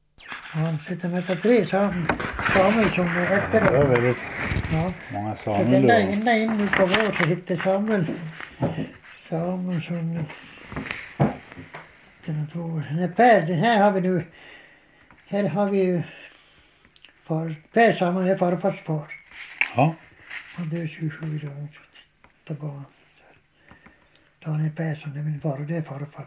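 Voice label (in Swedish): Grangärde Dalarna, dialekter; Folkminnen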